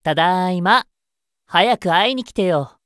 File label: job notification voice; Asmodeus